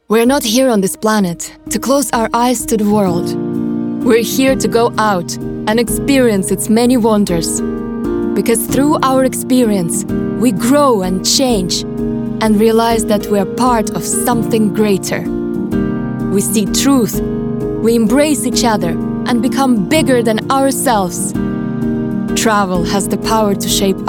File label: English, Russian, Female, 20s-40s; Eastern European